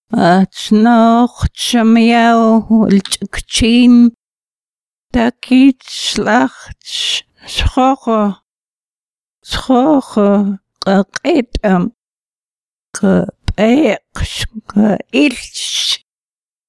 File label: narrative; N̓xaʔmxčín; 03 Coyote & Fox Fishing; N̓xaʔmxčín Curriculum Project